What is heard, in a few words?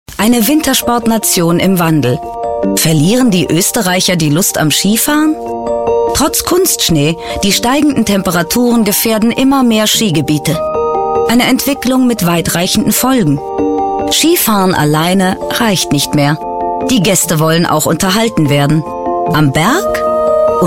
german voice over female documentary